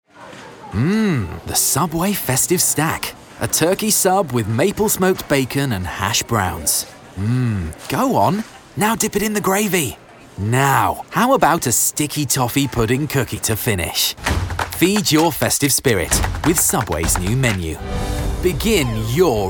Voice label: Commercial

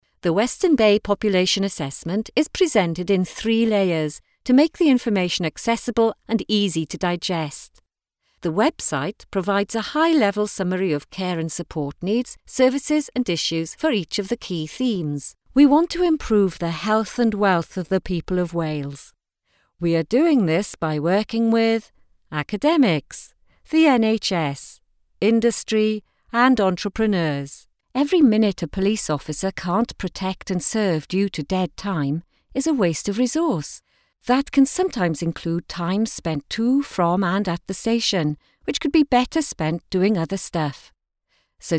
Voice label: Welsh accent eLearning

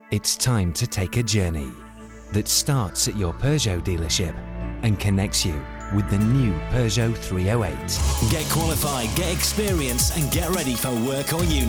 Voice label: Commercial

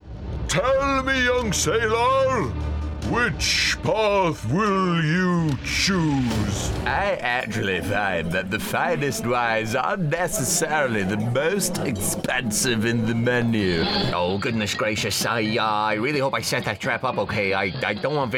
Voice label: Character

